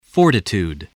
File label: "fortitude", English voice, spelling